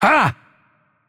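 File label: Turkish attack heavy voice; bloom minigame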